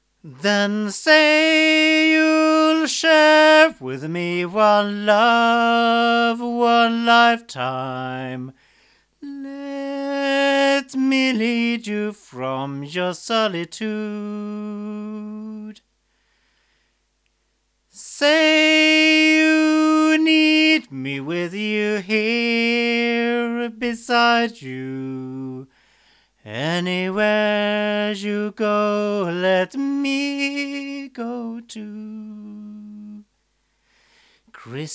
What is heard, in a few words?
Yoga DM-564 male, microphones